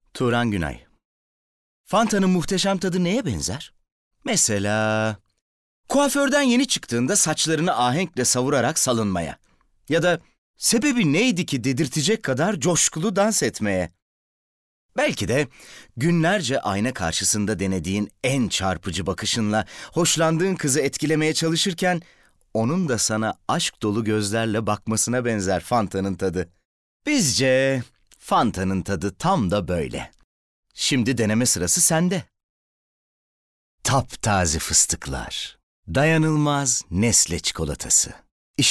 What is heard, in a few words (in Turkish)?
REKLAM, tr